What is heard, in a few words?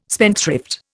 "spendthrift", Indian